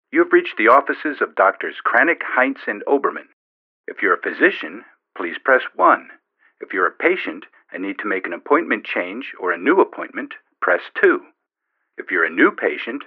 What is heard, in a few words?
Messages on Hold